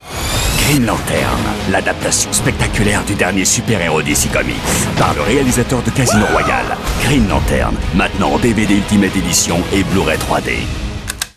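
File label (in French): BANDE ANNONCE, voix; Green Lantern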